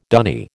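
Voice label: "dunny", American English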